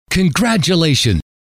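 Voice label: "congratulations", male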